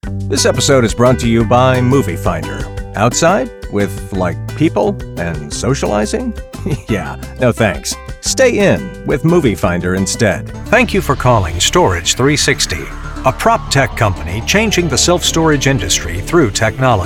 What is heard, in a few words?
Natural & Conversational